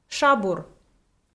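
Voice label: "шабур", Komi, female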